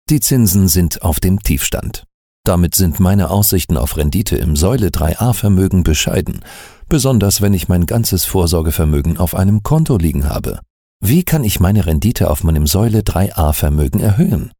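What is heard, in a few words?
German Erklärvideo; CIC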